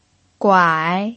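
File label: "guai", third tone, Mandarin Chinese pinyin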